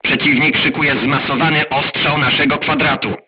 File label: voice message art shooting warning, crew tanks polish